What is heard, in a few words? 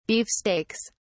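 "beefsteaks", English, British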